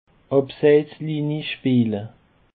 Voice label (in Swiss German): "abseitslinie", Alsace